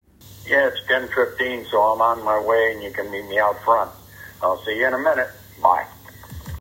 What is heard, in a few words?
Voicemail